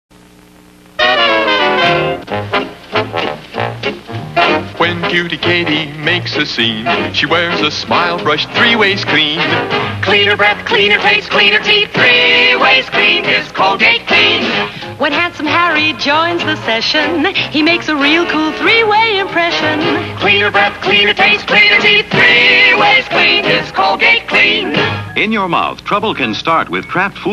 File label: commercial; Colgate; 1960s